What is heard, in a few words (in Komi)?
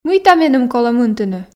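мыйта меным колӧ мынтыны, Komi, female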